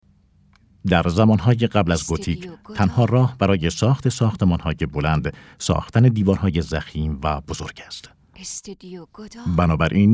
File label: documentary